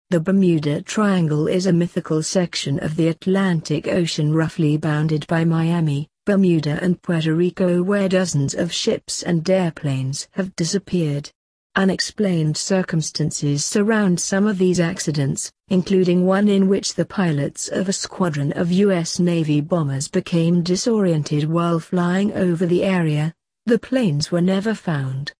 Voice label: Read Aloud model answer, pte speaking